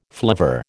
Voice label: "flivver", American

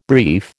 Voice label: "brief", American

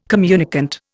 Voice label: "communicant", Indian

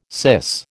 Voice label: "syce", American

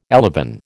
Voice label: "elevon", American

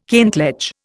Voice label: "kentledge", Indian

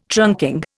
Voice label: "trunking", Indian

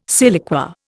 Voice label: "siliqua", Indian

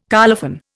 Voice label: "colophon", Indian

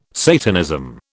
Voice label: "Satanism", British

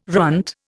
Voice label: "runt", Indian

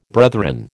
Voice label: "brethren", American

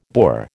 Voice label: "Boer", American

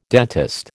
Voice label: "dentist", American

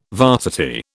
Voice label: "varsity", British

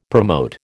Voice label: "promote", American

